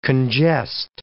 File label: "congest", English